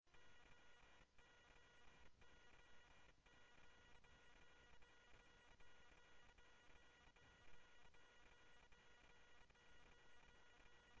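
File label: Gilbert 2 Information, scanner audio; Mesa Simulcast; MESA OPEN ALL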